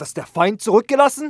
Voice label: curious; mohaa; dialogue

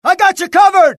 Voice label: suppress, dialogue, US; mohaa

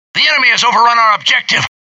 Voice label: american failure; dialogue; mohaa

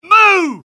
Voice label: suppress, dialogue UK; mohaa

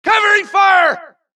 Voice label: supress, dialogue Allies; mohaa; Mission 2

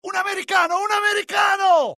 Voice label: alert, dialogue IT; mohaa; attack

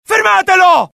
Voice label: alert, dialogue IT; mohaa; attack

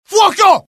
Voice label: alert, dialogue IT; mohaa; attack

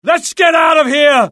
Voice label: alert, UK; mohaa; dialogue

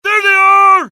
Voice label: alert, dialogue generic US; mohaa

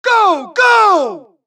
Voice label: supress, dialogue Allies; mohaa; Mission 2

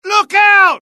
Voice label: alert, dialogue UK; mohaa

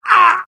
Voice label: death, UK; mohaa; dialogue

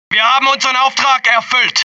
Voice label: german success, German; mohaa

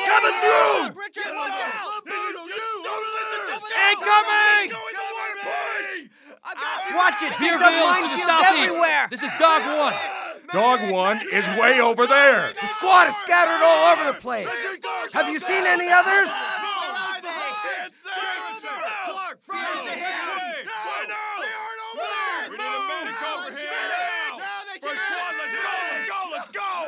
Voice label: amb guys shingle; mohaa

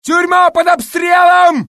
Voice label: Russian; mohaa; dialogue

